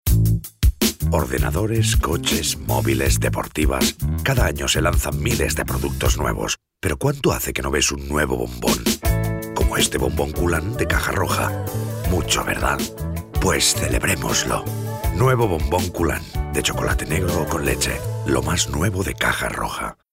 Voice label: male spanish chocolat comercial; ideasonora